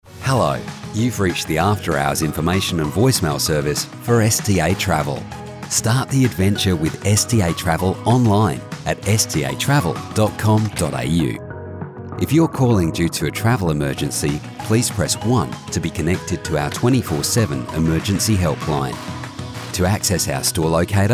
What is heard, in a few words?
on hold